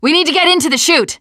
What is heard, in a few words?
gravel hill, teengirl